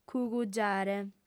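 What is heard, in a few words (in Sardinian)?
"cugugiare", female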